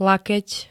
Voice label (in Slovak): "lakeť", slovenské